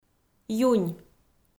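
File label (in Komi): "юнь" Komi, female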